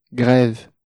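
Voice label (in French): "greve", French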